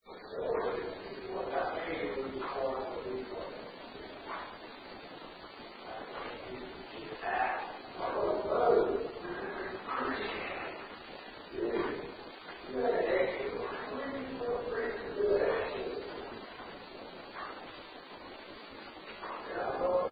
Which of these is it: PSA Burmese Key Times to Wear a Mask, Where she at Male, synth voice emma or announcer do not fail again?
Where she at Male